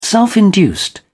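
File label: "self-induced", British English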